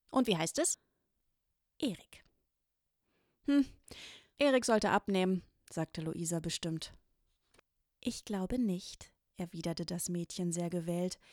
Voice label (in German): Kinderhoerbuch dialog